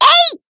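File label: finish, Japanese; white nopou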